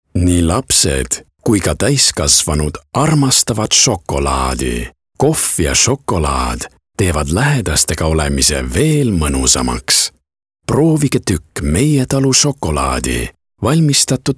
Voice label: EST male, Sweet

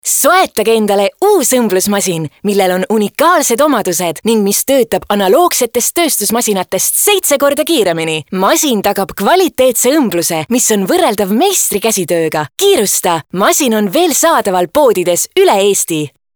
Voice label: EST Female Positive

